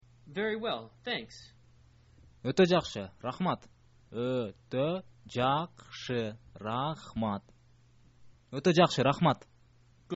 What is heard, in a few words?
very well thanks, kyrgyz